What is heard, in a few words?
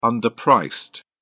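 "underpriced", English, British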